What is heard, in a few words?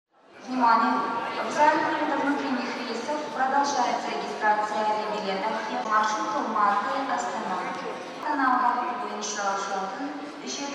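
announcement at airport Almaty; Звуки аэропорта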